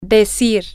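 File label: to Tell Say, Spanish, female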